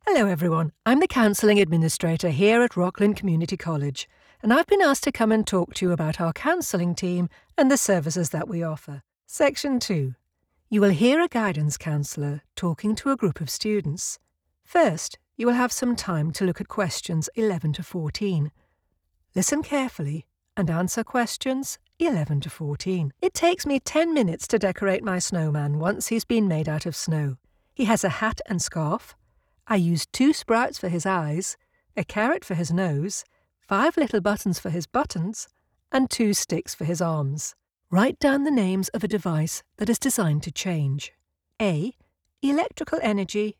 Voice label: E-Learning

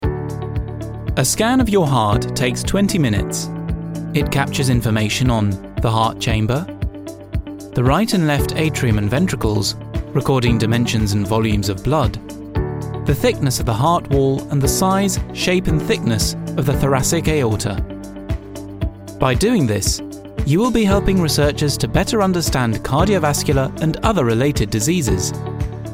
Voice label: ENG Medical Narration

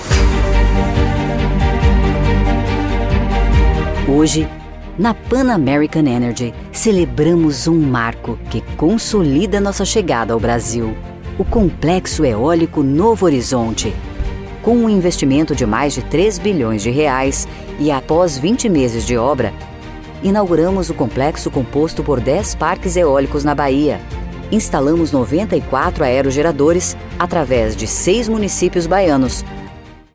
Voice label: Institutional; Pan American Energy

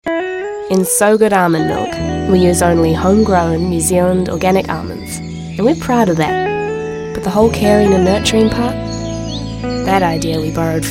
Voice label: Voice Actor; BigMouthVoices

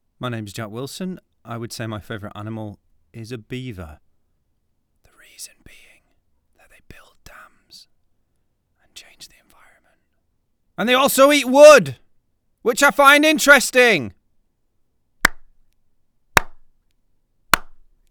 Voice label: Raw home Studio

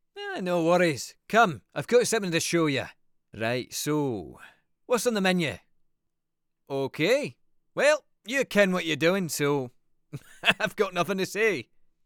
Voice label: Scottish; big mouth

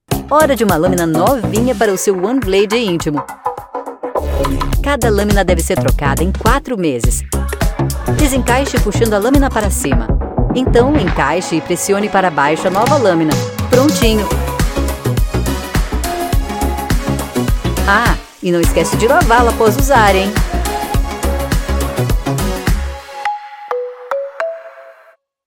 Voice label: Advertisement; Philips OneBlade Intimo

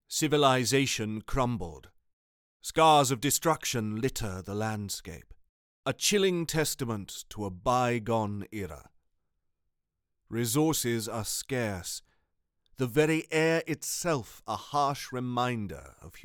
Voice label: English RP

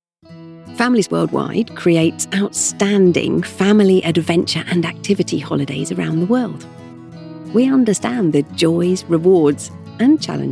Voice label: Female British Explainer

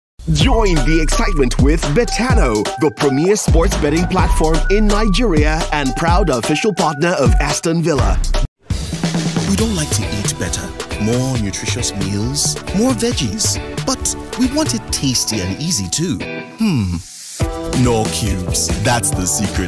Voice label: AFRICAN Commercial

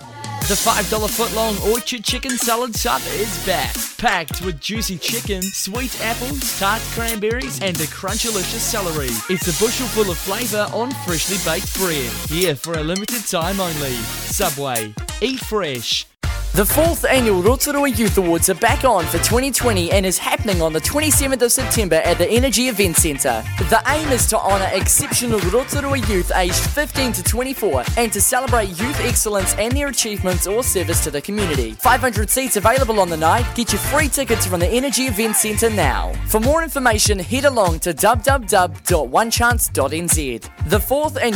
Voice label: Voice Actor; BigMouthVoices

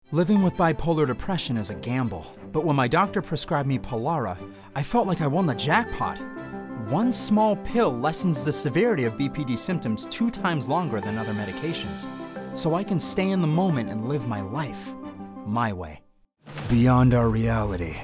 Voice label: Commercial

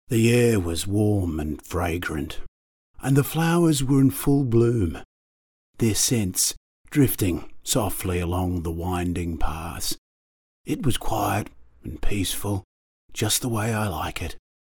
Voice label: Old Man Remembers

